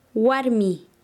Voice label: "mujer", Quechua Chanka

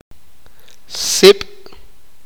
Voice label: "humo", sipakapense

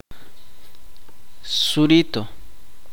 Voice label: "izquierda", Chuj

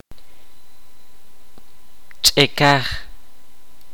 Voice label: "rodilla", Kaqchikel

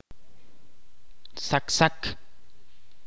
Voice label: "blanco", Ch'orti'

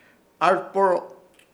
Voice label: "ARPORO" palo garrote, Ishir Chamacoco

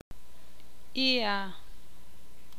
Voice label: "semilla", ixil

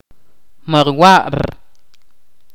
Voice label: "aldea", Ch'orti'

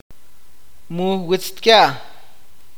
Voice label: "cielo de mal tiempo", awakateko

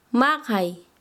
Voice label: "pegar", Quechua Chanka

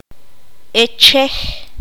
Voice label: "hacha", Q'anjob'al

